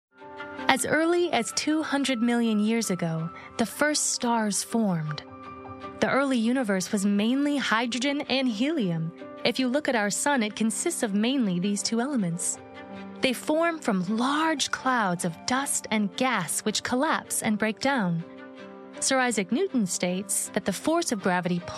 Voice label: Documentary